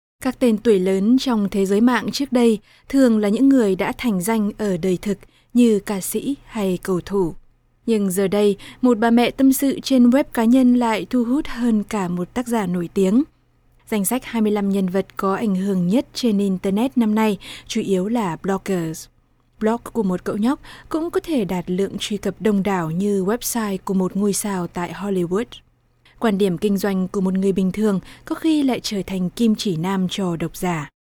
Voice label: Vietnamese; foreign languages

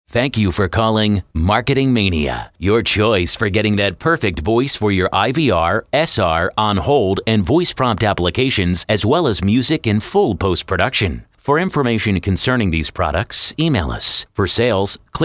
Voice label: Voice Sample, onhold

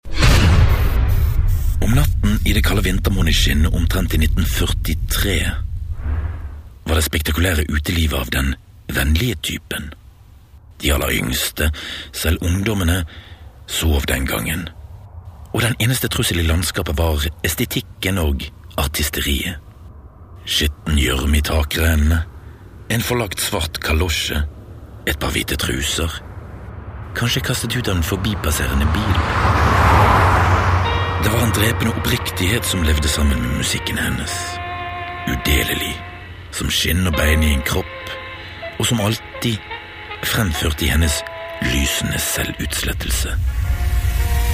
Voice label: Norwegian; foreign languages

